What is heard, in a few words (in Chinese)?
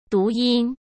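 "读音", Mandarin Chinese; chinese flashcards; hsk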